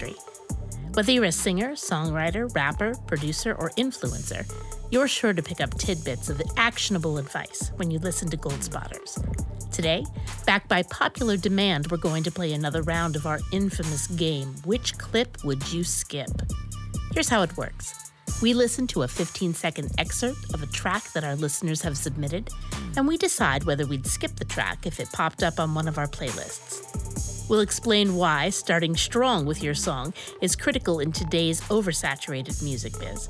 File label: Podcast